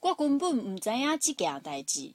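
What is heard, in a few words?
minnan, Chinese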